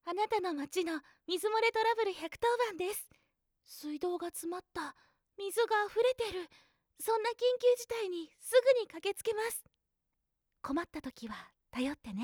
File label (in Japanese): 水漏れトラブル110番CM